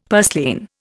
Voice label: "purslane", Indian; Dictionary